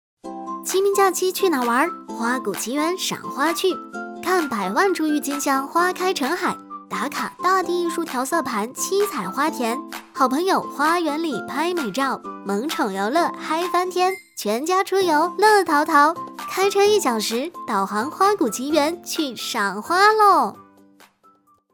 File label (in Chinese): 年轻活泼